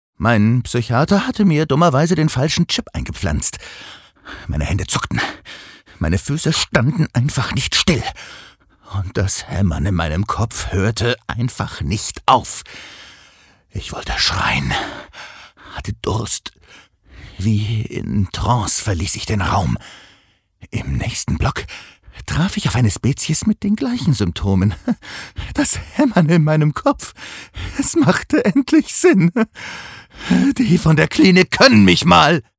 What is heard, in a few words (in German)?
Schauspiel Lebendig